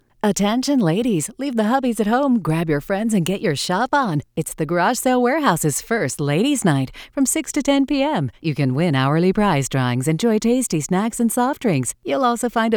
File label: Sultry; KPAM; Garage Sale Warehouse